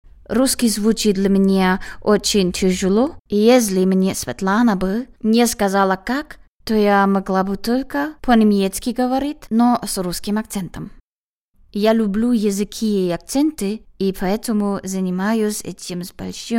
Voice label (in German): Russisch